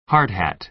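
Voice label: "hard hat", American English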